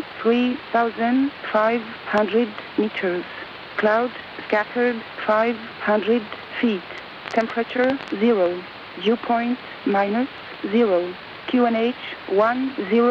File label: AM Lugano Volmet